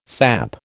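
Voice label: "samp", American English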